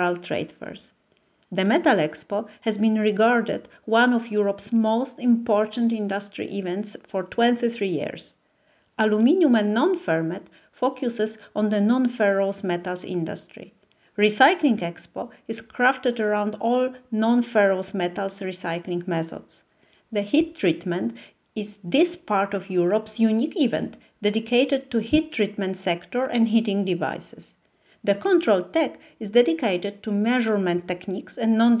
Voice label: Podcast Industial Autum Targi Kielce Foundry; Industial Autum Targi Kielce Foundry Planet